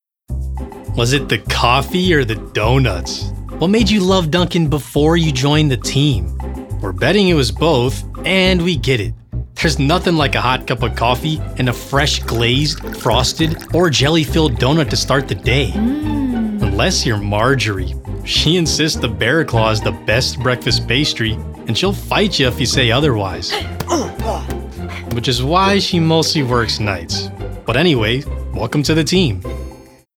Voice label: E-Learning AMUSING